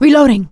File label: reloading quiet, survivor voice; producer